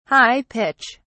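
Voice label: "high pitch", English, British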